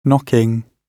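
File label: "knocking", British English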